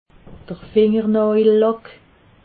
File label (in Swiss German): "fingernajellack", alsace